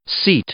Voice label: "seat", English; noun; i-ɪ